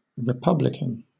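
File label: "republican", British English; pronunciations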